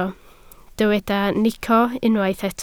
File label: "nico", Welsh